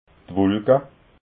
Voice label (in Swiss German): "wulka", alsace